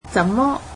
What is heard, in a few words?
"how", Cantonese